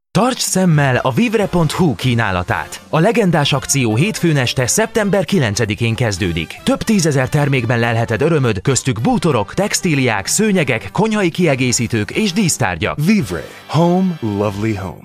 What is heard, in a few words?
Hungarian Voice Over